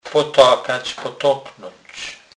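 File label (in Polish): "potakac", Kurpie dialect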